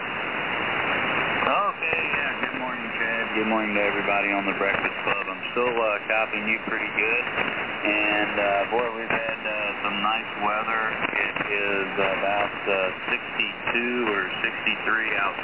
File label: SM 50 Mic Comp 3 TBW Wide Bass 2 Treble 4 Low Cut